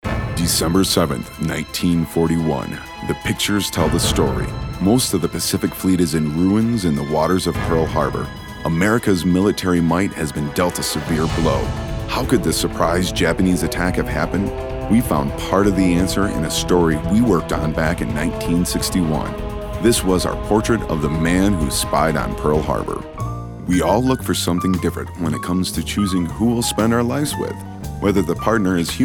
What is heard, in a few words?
Narration